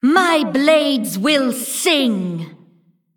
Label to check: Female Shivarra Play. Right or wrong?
Right